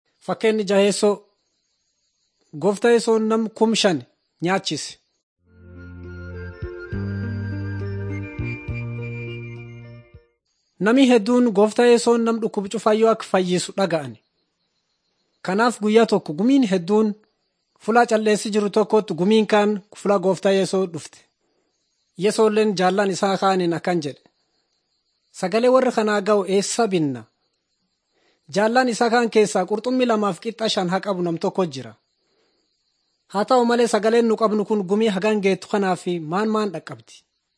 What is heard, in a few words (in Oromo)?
borana